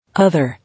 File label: "Other", English